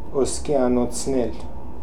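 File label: "Oskianotznel", pronunciations; Fourth Way; Beelzebub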